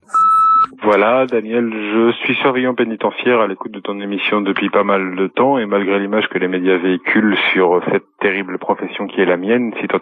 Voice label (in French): Maton conscient repondeur